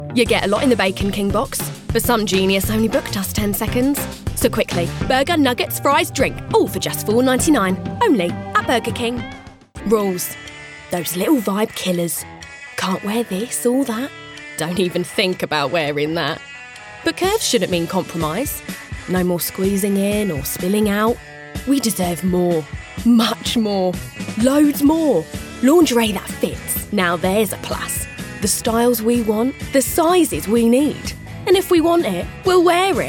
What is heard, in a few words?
Commercials